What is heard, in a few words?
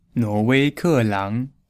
"nuo wei ke lang", Mandarin